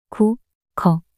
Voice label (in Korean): "고거" 낱소리 여자